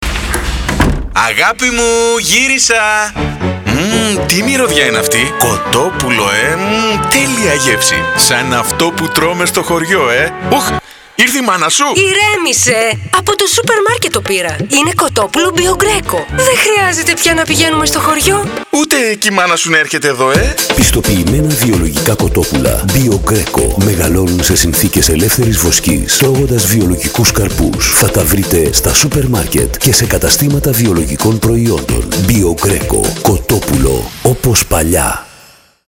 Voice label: radio spot; Biogreco